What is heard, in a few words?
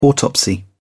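"autopsy", English, British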